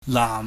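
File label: "laam", Cantonese, low falling tone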